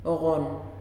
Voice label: "Sand", Nalik